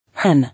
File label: "Hen", English